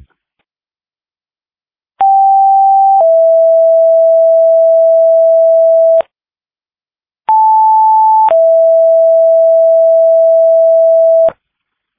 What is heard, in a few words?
Fire, dispatch